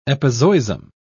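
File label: "epizoism", British English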